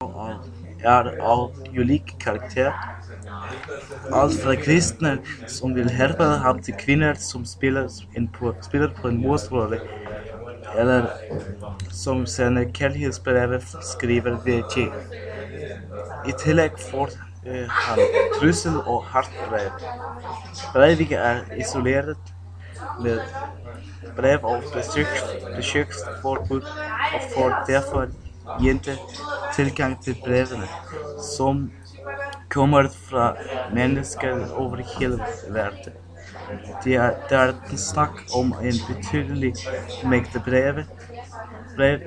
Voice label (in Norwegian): snakker norsk